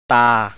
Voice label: Thai

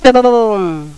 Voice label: woman boy slow

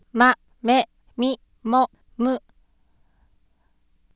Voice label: japenese

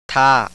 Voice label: Thai

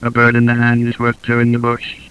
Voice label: Bird monotone, speechbird

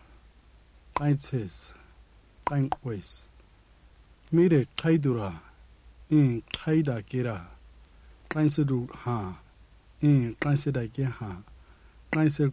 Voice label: Nama Greetings

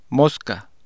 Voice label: "mosca", Spanish

